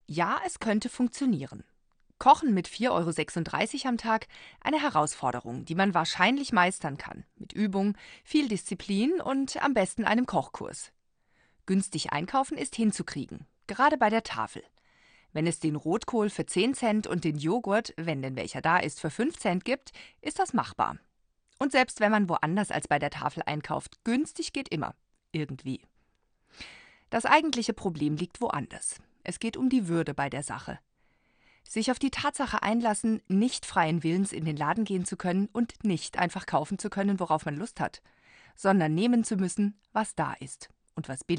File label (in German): Kommentar; kochen mit hartz